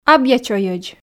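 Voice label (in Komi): "абъячойӧдз", female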